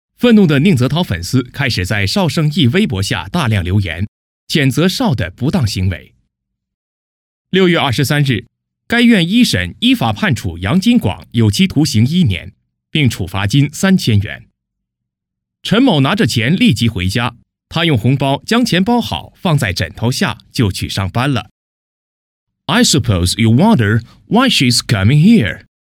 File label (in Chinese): 男英 外语 国内英语 中英文